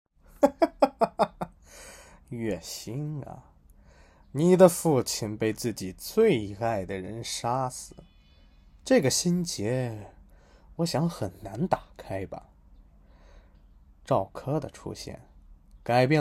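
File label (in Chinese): 阴柔古怪男侦探对话; 阴柔古怪男侦探破坏感情让女主抉择未来对话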